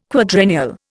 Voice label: "quadrennial", Indian